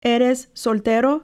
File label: Are you single male Eres soltero